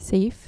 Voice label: "safe" dutch

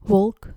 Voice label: "wolk", Dutch

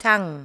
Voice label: "tang", english

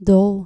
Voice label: "doll" dutch

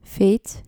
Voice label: "feit", Dutch

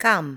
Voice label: "kam" english

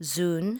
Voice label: "zoen", english

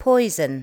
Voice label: "poison", L2 English

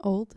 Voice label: "old", dutch

